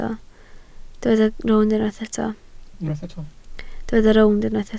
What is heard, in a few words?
"rownd", Welsh